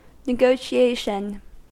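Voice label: "negotiation", English, American accent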